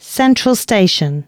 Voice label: announcements, female

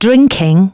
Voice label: female, announcements

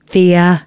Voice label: announcements female

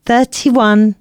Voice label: announcements female

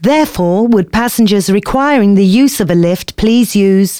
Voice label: announcements, female

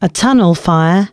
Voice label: announcements, female

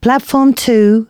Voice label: female, announcements

